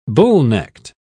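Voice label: "bullnecked", British English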